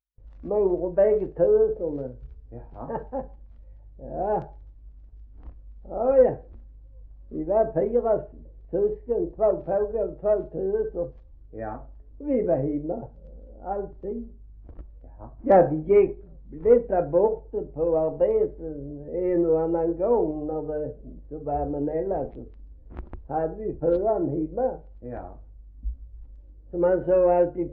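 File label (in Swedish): Gråmanstorp Skåne, dialekter svenska sagor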